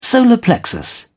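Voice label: "solar plexus", English, British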